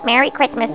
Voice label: merry xmas elf